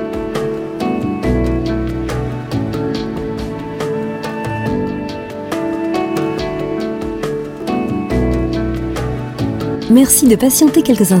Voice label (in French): Message d'attente; Service Pro Meublerie; telephonie